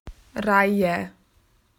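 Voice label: "line up", Australian English; PictureDictionary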